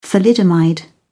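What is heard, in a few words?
"thalidomide", English, British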